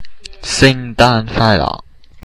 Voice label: Cantonese, christmas